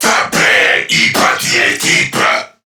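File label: Attack Crewmen, English; Warframe; CorpusCrewmen; CrewmanA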